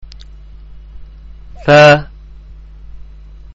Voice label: "Thaa", Arabic Letter Sounds